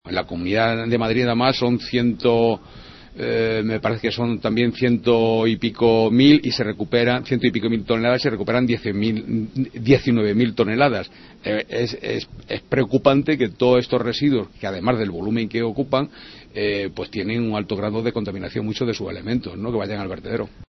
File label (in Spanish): noticias